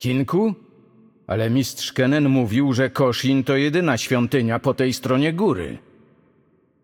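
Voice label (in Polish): Intro, Polish; Yunara; bloom minigame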